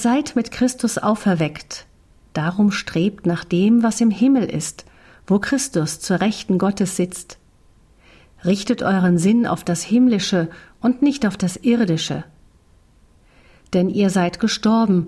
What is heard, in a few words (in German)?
Lesung